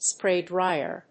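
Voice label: "SPRAY DRYER", English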